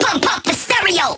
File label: Vocal Pitched Phrase Male "Pump Up" Stereo